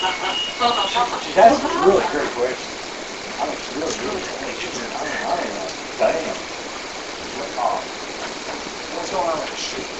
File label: Daughters Bedroom Unexplained Whisper (You taking pictures of a madman) AMPLIFIED